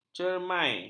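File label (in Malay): "cermai", Malay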